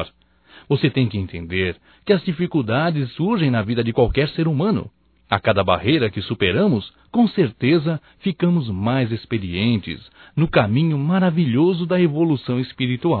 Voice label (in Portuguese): Otimismo Amigo Masculino; Telemensagens 2017